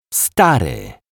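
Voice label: "stary", Polish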